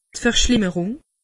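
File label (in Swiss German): "verschlimmerung", Alsatian; medecine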